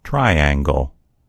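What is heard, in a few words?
"triangle", American English; gcompris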